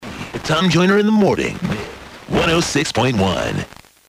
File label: Local ads, FM DX; Es (1278 miles); WMXU 106.1 STARKVILLE MS 40 URBAN; Mix 106.1